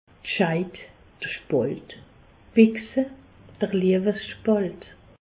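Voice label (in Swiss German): "scheid", Alsace, Haut Rhin; medecine; vagin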